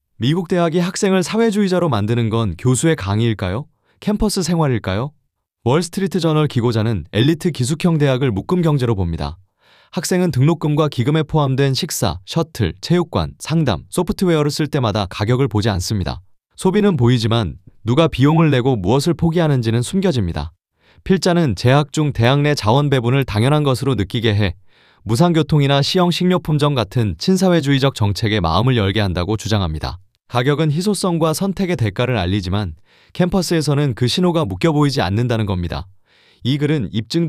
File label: narration